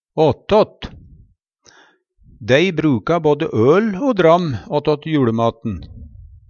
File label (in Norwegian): "åtått", Numedalsmål; i tillegg, saman med